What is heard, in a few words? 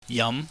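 "yam", Cantonese, mid rising tone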